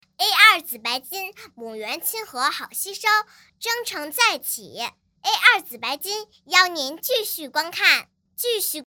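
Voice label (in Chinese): 女大音-女真童; 真童配音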